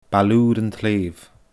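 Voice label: "Baile Ùr an t-Slèibhe", Scottish Gaelic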